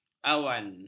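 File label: "awan", Malay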